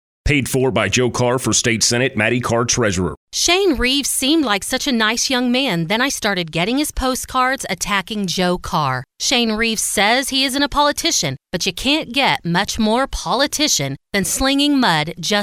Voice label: Female